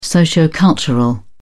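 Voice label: "sociocultural", British English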